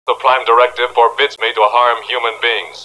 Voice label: prime directive, robot; robot b9